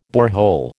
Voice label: "borehole", American

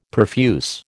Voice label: "perfuse", American

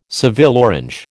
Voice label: "Seville orange", American

